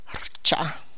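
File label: Tlacochahuaya Zapotec; I warm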